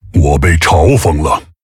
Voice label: Male Tauren ERROR TAUNT, zh